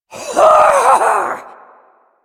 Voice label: Ability Long Cast, Barks; Warframe; Corpus Lich; Persona D